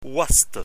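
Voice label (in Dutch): "waste", Dutch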